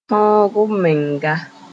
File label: "tõog-m-menga", Mooré